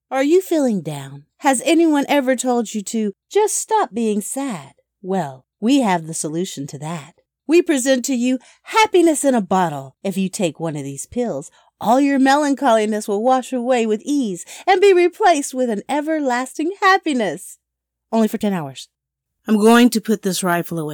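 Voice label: Voice Acting, Guard, AI, Meditation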